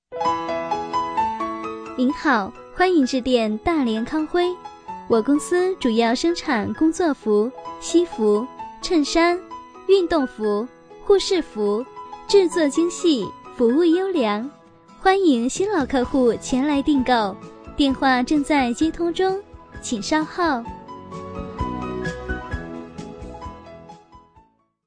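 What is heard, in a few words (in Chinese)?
女彩铃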